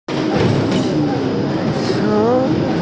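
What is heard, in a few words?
"zu2qiu2", Mandarin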